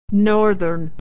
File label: "northern", pronunciation